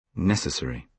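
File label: "necessary", British English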